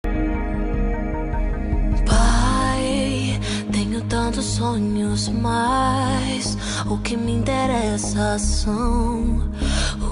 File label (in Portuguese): pai fem gospel